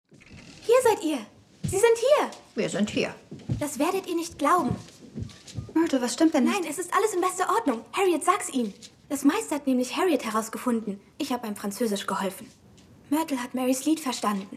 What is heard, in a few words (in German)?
Synchron